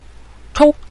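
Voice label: "chou", Mandarin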